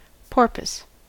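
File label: "porpoise", US English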